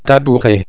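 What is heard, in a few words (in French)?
"tabouret", French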